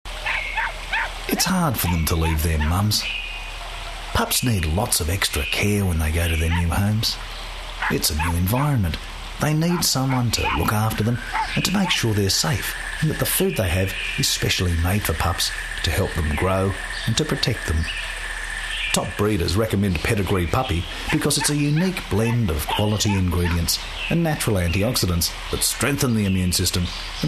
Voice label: Soft Sell

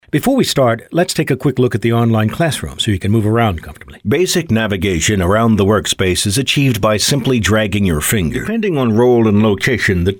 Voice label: Elearning

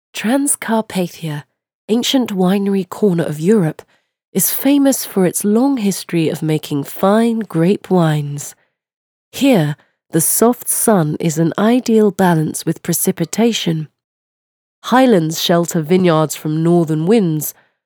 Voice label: Documentary Sample

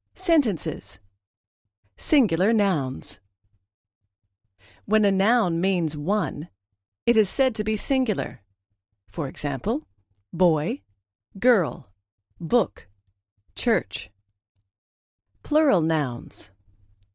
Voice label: Cold Voice Educational

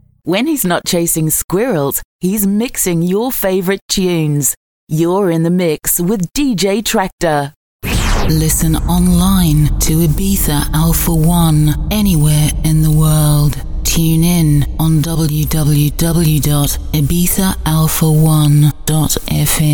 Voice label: MUSIC liners

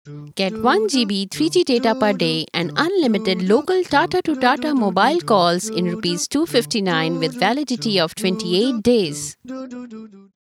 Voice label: English; TATA DoCoMo; Scratch

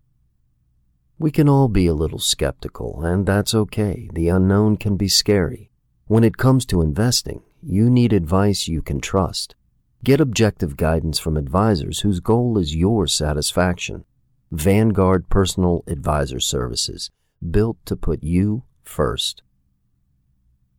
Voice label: Commercial Spot; Vanguard

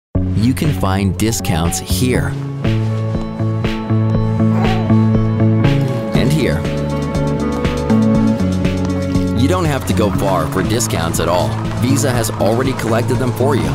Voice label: Narrates a Commercial; Visa

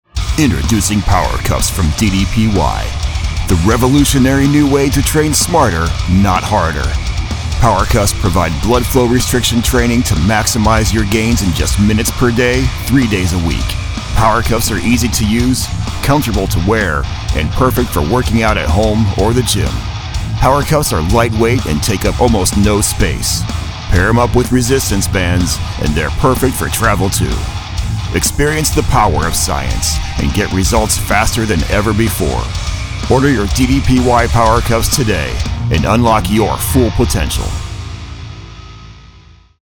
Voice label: Gym Bro, Manly, Fitness Spot; Television Spots

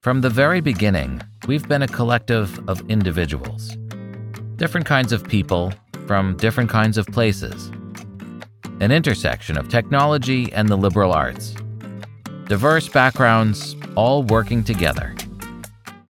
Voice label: Human Resources Casual Laid back

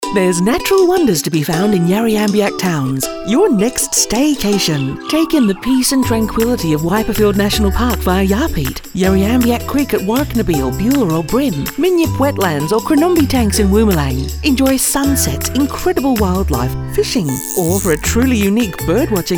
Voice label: radio ad; Staycation

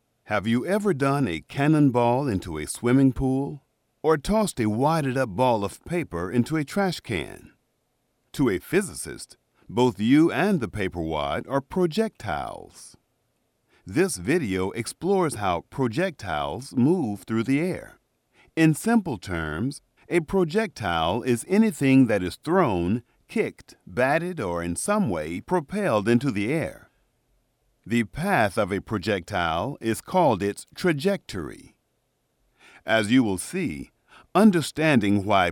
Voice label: educational physics series

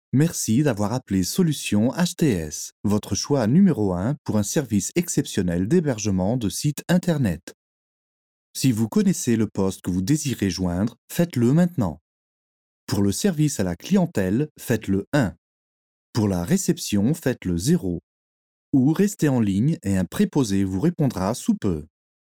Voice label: French IVR VO Sample

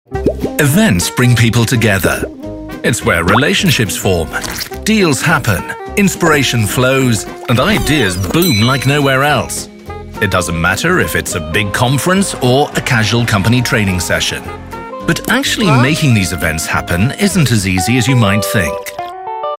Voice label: opener animated

